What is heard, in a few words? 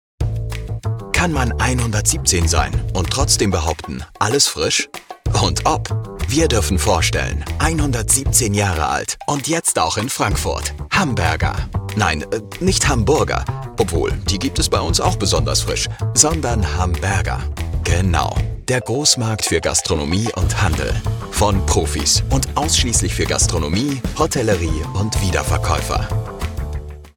german narration